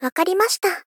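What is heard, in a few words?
Polite, Accept, "Wakari masita", Japanese female; Rei Yumesaki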